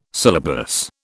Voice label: "syllabus", British